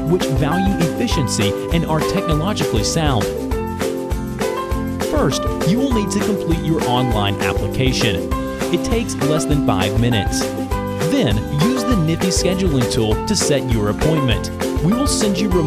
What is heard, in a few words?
Promo Video Direct, VoiceOver